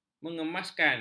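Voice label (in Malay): "mengemaskan", Malay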